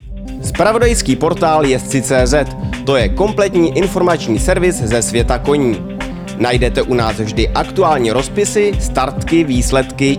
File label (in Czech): zvuk spot; Jezdci cz